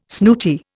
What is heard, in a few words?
"snooty", Indian